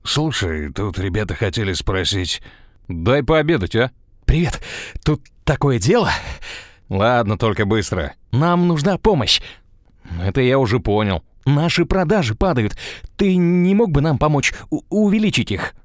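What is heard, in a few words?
dubbing, Russian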